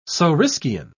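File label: "saurischian", English, British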